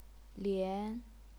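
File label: "lian", Chinese, second tone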